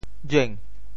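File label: "jueng2", Teochew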